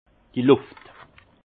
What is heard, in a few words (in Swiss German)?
"luft", Alsace; vin